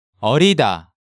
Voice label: "Eorida", Hangeul sounds; DigitalSoju